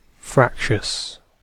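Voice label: "fractious", British English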